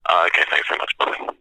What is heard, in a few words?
human male english says thanks very much goodbye telephone reciever